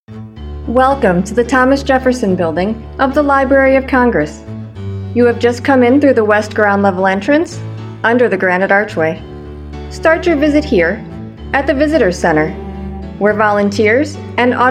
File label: Narration